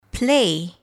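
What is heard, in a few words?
"play", English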